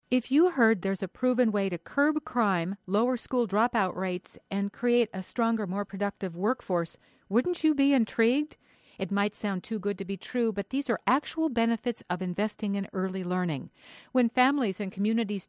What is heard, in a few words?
psa; economics of early learning